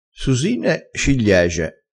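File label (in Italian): "susine cigliege", vocabolario dialettale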